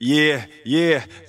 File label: "yeah yeah", voice; ooh ahh